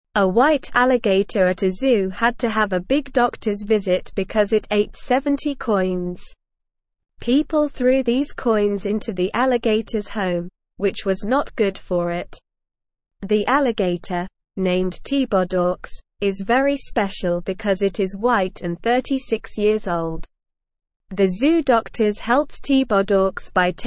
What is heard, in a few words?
English Beginner SLOW Reading; White Alligator Eats Coins Zoo Doctors Help